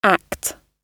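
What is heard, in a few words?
"act", British English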